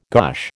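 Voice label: "gosh", American English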